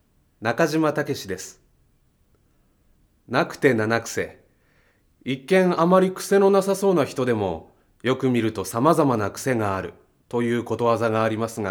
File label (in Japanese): フリートーク